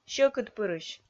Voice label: "сьӧкыдпырысь", Komi